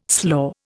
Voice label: "slaw", Indian